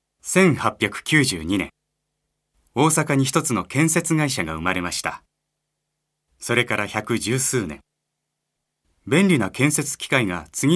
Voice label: 会社案内

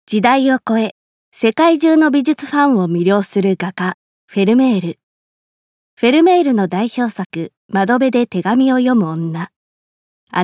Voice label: 解説ナレ

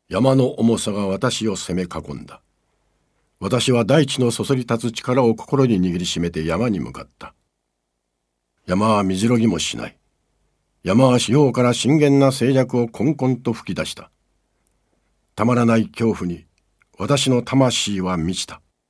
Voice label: 朗読

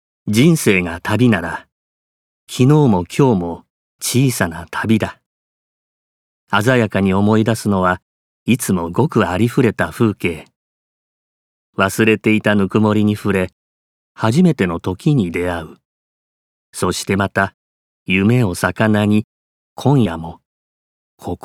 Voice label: 語り調CM

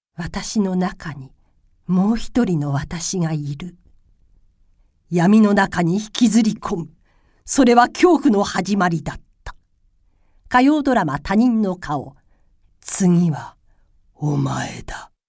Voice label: ドラマ番宣

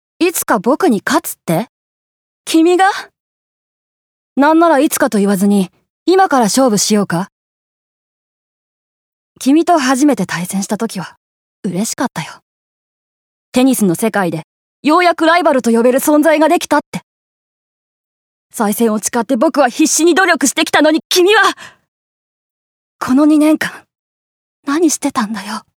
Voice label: 少年

